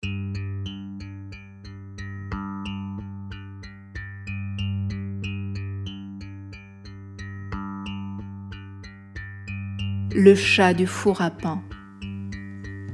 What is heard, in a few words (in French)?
francais et arabe; Le chat du four à pain